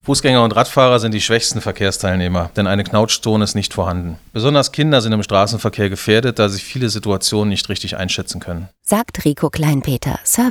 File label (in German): Infomercial Radio; Stoppen Schauen Winken; Radio Vest